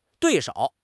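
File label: "dui4 shou3", Chinese; 汉语口语新时代-初级下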